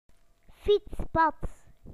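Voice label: "bicycle path", British English; PictureDictionary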